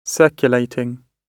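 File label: "circulating", British English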